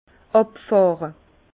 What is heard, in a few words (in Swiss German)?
"abfahre", Bas Rhin; vin; débutter